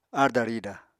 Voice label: "aarda-rida", Apatani